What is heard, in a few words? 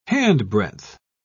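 "handbreadth", British English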